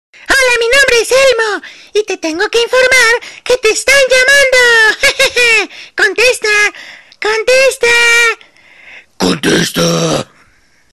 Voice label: angry elmo